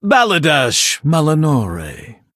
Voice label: Male Blood Elf Greetings; en